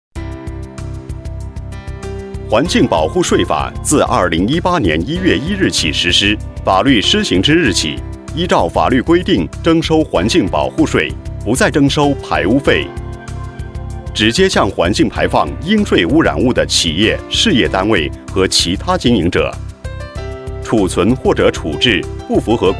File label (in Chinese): 男 专题 低碳生活健康你我